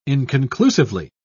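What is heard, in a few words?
"inconclusively", British English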